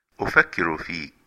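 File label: thinking of you, female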